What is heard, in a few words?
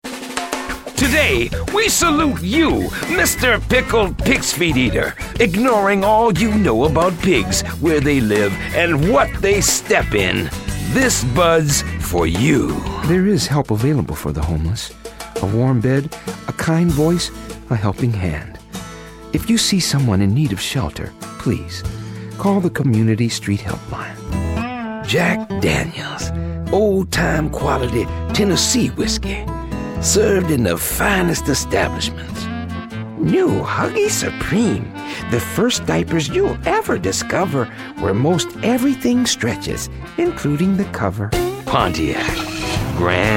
commercial male